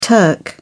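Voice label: "turk", British English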